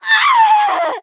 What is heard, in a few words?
hit fr; Cookie Run